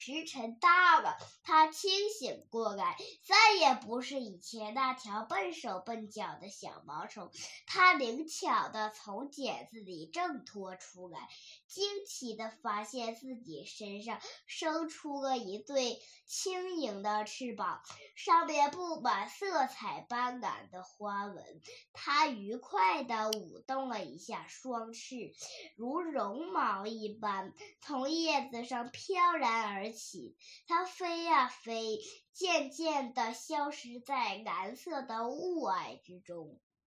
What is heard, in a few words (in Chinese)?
朗读